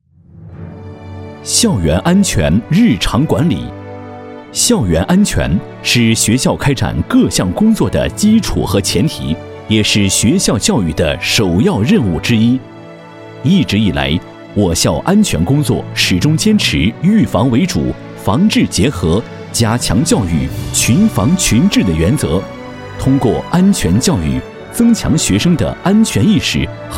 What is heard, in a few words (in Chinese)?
男 专题片 浑厚大气; 郑州四中消防